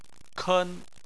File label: "ken1", Chinese